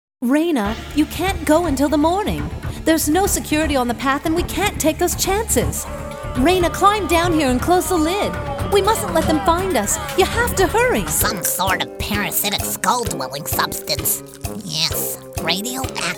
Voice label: women animation; Personable, youthful, powerful and fun, amazing range, accents and characters galore.